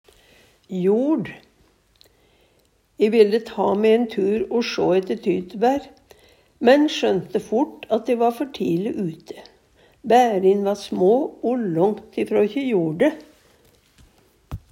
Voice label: "joRd", Numedalsmål

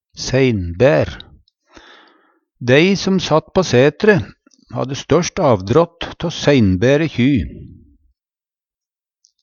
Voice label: "seinbaer", Numedalsmål; Eksempel på bruk Dei som sat på sætre, hadde støst avdrått tå seinbære kjy.

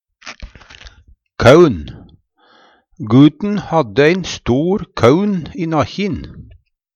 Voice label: køun, Numedalsmål; Substantiv hankjønn

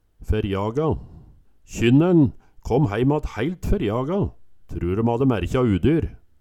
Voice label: ferjaga, Numedalsmål

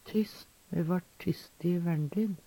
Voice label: "tysst", Numedalsmål; tyst = stille

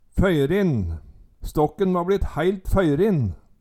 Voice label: "føyrin", Numedalsmål; Ståkken va bLitt hæilt føyrin

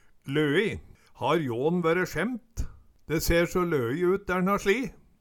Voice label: loi, Numedalsmål; løi

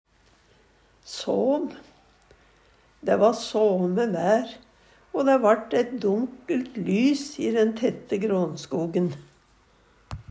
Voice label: såm, Numedalsmål; Adjektiv

